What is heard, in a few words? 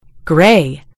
"gray", en GB; gcompris